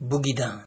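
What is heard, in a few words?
"boogidan", Nigerian Pidgin, male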